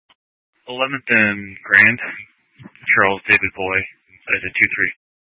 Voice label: Police Department Dispatch, scanner